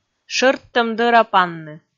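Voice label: "шӧрттӧм дӧра панны", Komi, female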